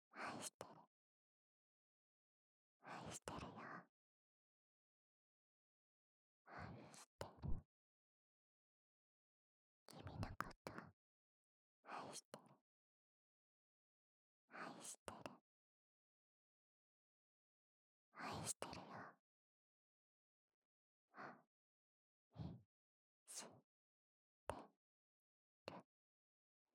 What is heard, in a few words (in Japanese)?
囁き 左耳 愛してる; 左耳ver